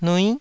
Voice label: "nui9", taiwanese

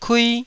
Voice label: "kui1", Taiwanese syllable

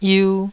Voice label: "yiu2", taiwanese Syllables

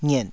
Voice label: "ngiet3", Taiwanese syllable

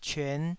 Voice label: "cyuan5", Taiwanese syllable

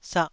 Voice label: "sah2", taiwanese syllable

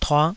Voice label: "tuah5", taiwanese

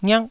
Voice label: "ngiak4", taiwanese syllables

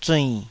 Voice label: "zuinn3", taiwanese

